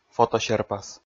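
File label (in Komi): "фотосерпас", Komi, male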